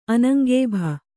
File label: "ಅನಂಗೇಭ", kannada